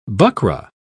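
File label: "buckra", English, British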